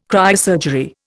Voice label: "cryosurgery", Indian